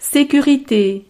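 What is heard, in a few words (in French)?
"securite", French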